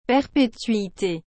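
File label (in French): "perpetuite", French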